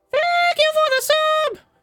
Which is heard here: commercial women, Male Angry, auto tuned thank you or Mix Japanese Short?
auto tuned thank you